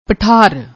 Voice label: "pathaar", Punjabi

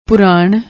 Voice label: "purran", Punjabi

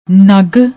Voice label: "nag", Punjabi; ਨਗ

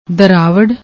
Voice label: "daravar", Punjabi; ਦਰਾਵੜ

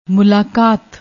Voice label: "moolaakat", Punjabi

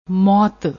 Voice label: "maott", Punjabi

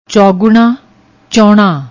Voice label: "choguna", Punjabi; ਚੌਗੁਣਾ

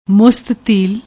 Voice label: "musatteel", Punjabi

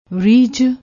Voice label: "reez", Punjabi

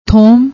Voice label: "thom", Punjabi

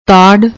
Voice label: "taadd", Punjabi; ਤਾੜ

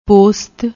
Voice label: "posat", Punjabi

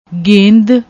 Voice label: "gend", Punjabi; ਗੇਂਦ

